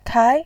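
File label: "kai2", Mandarin Chinese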